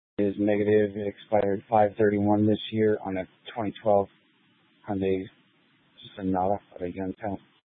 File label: Police Dispatch; Paradise Valley Police; Simulcast H- Scottsdale